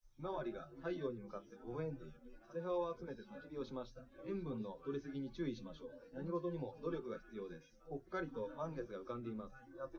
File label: test male live rec; SiSEC11; underdetermined